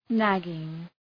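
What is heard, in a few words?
"nagging", English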